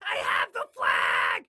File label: Flag I Have, Excited Guy